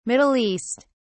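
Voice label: "middle east", British English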